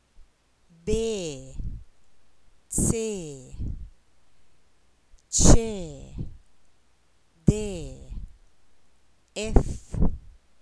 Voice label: consonants, Estonian